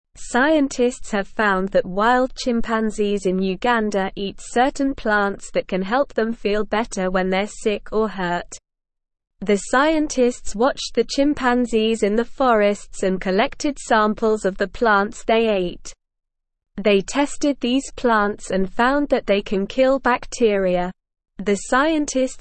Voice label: English SLOW Reading; Wild Chimpanzees in Uganda Use Plants with Medicina

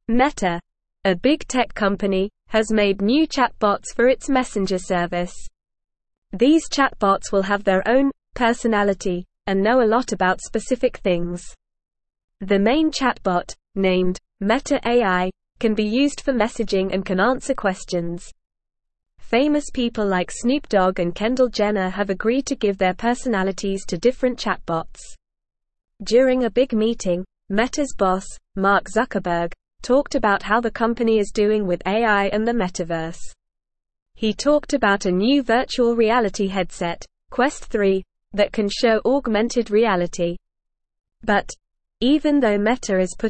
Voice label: English Beginner NORMAL Reading; English Newsroom; Meta Creates New Chatbots with Personalities for Messenger

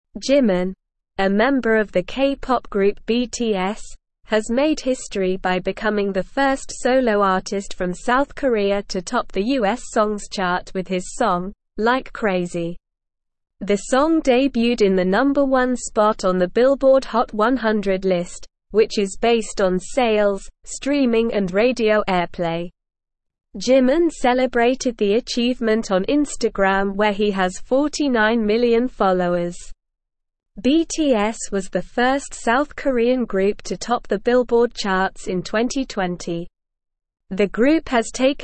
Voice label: English Newsroom Beginner SLOW Reading; K Pop Star Makes US Chart History

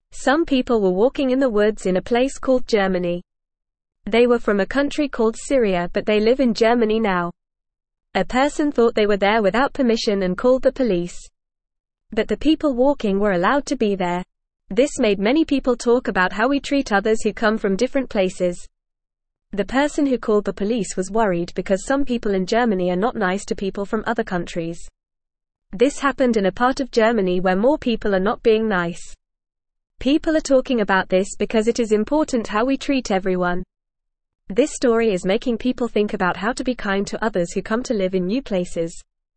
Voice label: English Newsroom Beginner FAST Reading; Being Kind to People from Different Places

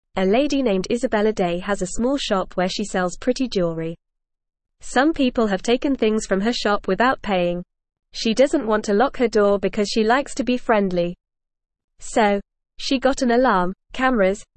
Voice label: English Newsroom Beginner FAST Reading; Shop Owner Catches Thief with Special Camera

